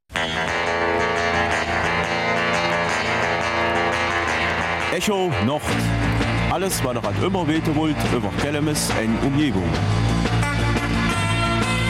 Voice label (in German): Kelmiser Mundart; Erinnerungen an Pierre Peusgen